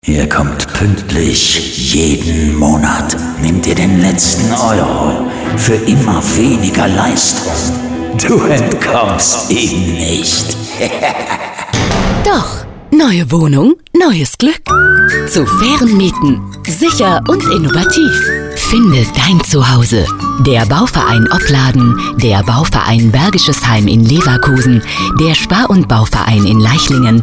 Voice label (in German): Radiospot